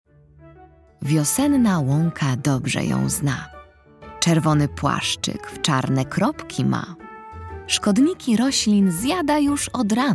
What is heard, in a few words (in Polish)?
narracja; czasopismo mis; wiosna; zagadka